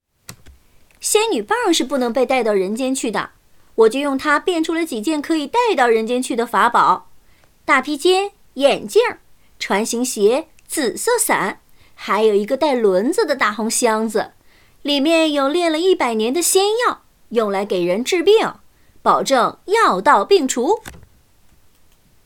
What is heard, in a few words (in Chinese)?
活泼调皮